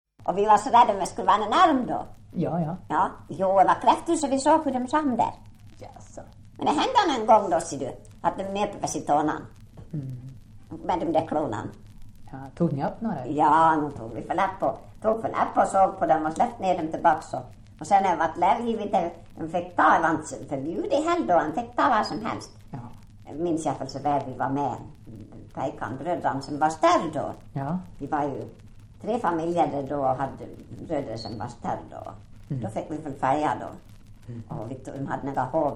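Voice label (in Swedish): Ål Dalarna, dialekter, svenska sagor; Folkminnen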